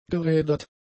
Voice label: "gerädert", German; adjectives

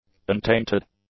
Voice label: "untainted", english; adjectives

